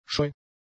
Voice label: "scheu", German; adjectives